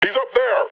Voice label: metropolice up there alert; Half Life Alyx